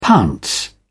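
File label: "pounce", British English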